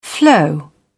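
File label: "flow", British English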